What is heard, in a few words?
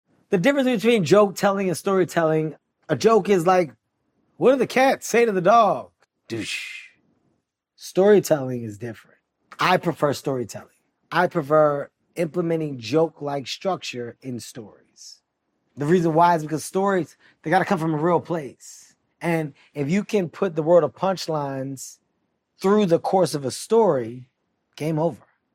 comedy